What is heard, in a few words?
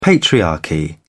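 "patriarchy", British English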